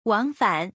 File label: "wangfan", Chinese